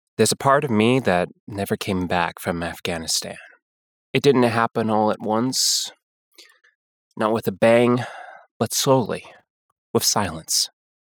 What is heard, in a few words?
Adult Male American Spiritual Conversational Genuine Gentle